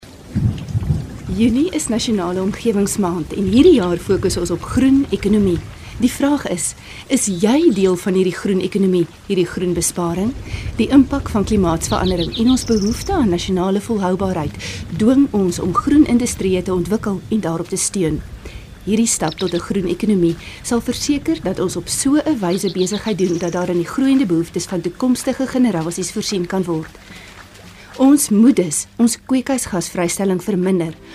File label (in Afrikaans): afrikaans; National Environment Month 2012